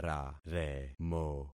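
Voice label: "raremo", concatenated syllables; Affixing experiment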